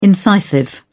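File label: "incisive", British English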